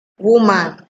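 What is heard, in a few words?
"woman", Nigerian Pidgin, female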